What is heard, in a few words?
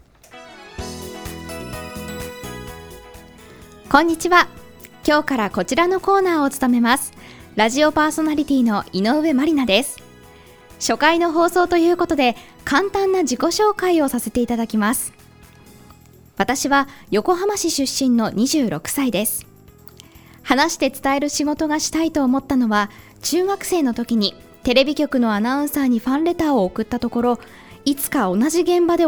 voice radio; inoue marina